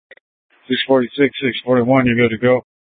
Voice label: Public Transit System Light Rail Maintenance, scanner; Valley Metro